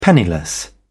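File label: "penniless", English, British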